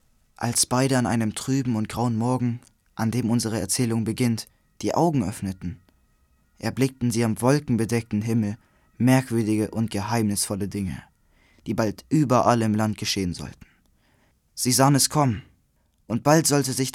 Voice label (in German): Jungen; Licht in Dunkelheit